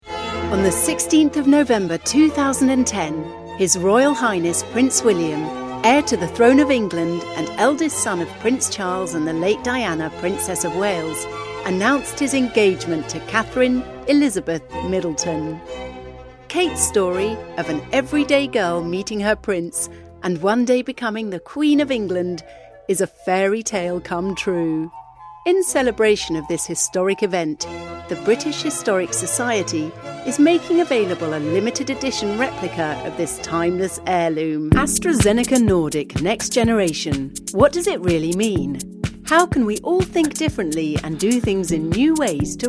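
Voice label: corporate voice reel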